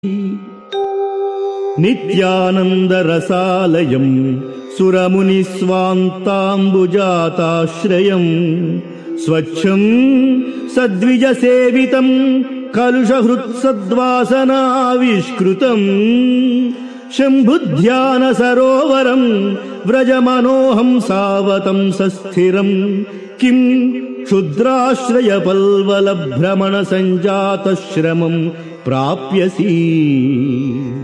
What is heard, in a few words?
Sanskrit; Sri Adi Sankaracharyas Sivananda Lahari; Adi Shankaracharya; श्रीशिवानन्दलहरी ४८